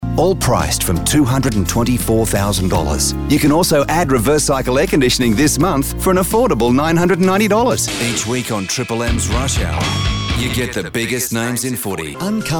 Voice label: radio ads